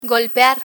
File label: "golpear", Spanish; verbs ar